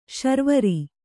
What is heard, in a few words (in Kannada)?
"ಶಾರ್ವರಿ", kannada